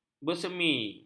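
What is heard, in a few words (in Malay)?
"bersemi", Malay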